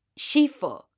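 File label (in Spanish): "XIFO", Spanish, female; mekanta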